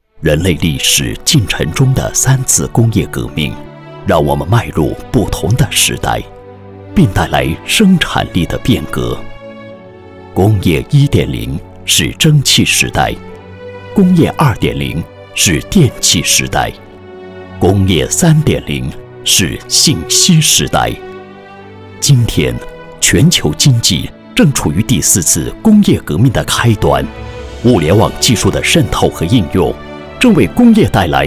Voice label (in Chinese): 男-工业展厅--深邃浑厚